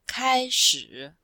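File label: "kai shi", Chinese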